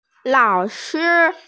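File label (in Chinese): "lao3shi1", Mandarin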